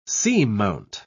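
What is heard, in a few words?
"seamount", British English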